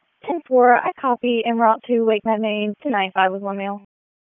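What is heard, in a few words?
Police Northeast District Dispatch, p25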